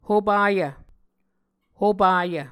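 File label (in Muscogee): "hopayv", Muscogee word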